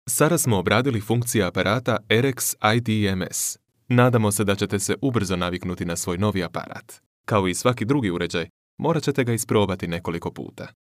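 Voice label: Croatian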